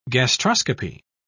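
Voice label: "gastroscopy", British English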